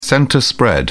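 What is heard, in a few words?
"centre spread", British English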